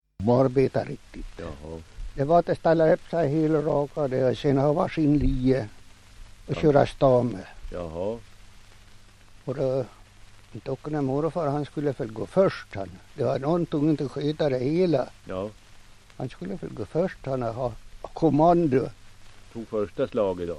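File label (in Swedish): Hed Västmanland, svenska sagor dialekter; Folkminnen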